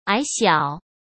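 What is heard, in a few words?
"矮小", Mandarin Chinese; chinese flashcards; hsk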